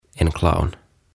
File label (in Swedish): "En Clown" "Pelle"